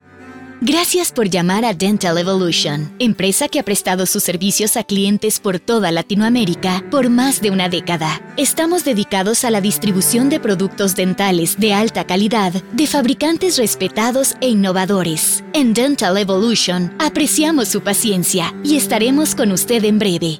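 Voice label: Spanish, IVR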